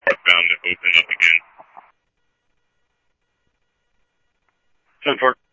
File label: Scottsdale Police, scanner audio